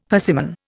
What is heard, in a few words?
"persimmon", Indian; Dictionary